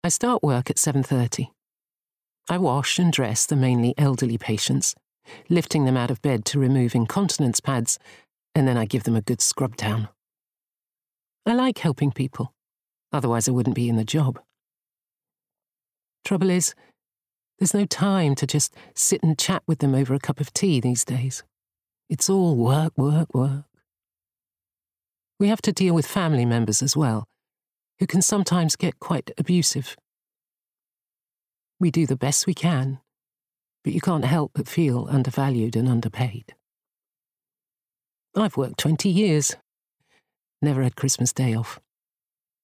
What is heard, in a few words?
Bookread